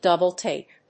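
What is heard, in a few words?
"double take", English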